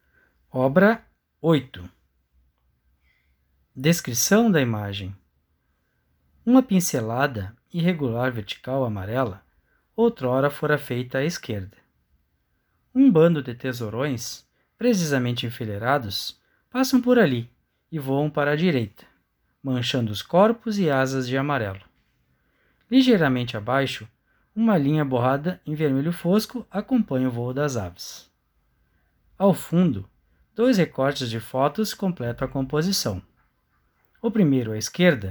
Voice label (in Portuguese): audiodescricao; Galeria virtual